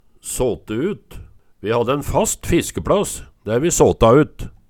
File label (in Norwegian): såte ut, Numedalsmål